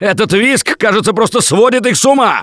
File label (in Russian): see clowns, left4dead2 russian, Survivor Voice; Gambler